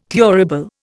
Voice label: "thurible", Indian